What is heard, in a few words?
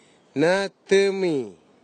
"natɨmi", Nafe, Tanna